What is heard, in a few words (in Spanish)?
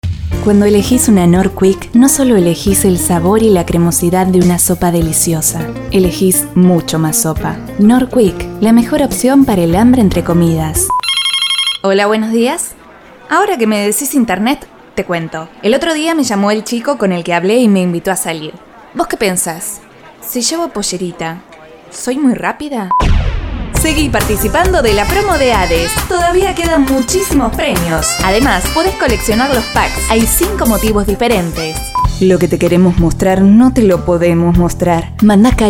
Mujeres, Locutoras; Casting Impulse